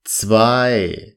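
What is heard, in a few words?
"zwei", German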